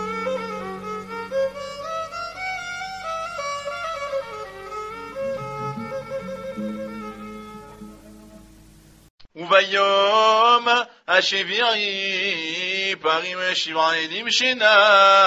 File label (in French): paracha de souccot algerien; 7e jour